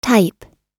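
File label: "type", English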